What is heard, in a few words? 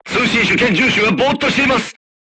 voice message machine gunner contused, crew tanks japanese